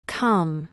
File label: "come", English